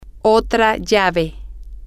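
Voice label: another Key, Spanish, female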